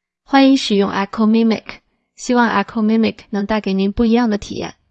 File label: girl; EchoMimic